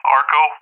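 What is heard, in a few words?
"Arco", English speech, Tanker Callsign; DCS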